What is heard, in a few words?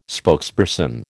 "spokesperson", American